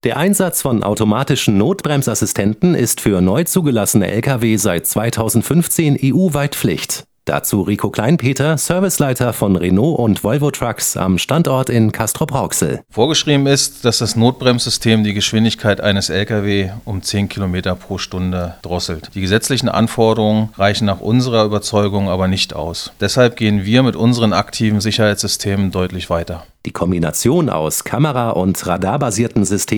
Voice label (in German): Infomercial; Notbremsassistent; Radio Vest